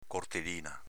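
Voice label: "cortelina", dialeto veneto; cortelìna s.f. roncola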